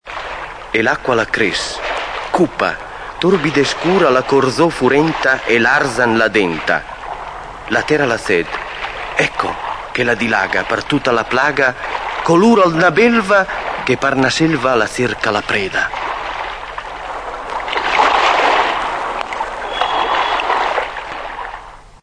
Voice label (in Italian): e l'acqua la cres (poesia)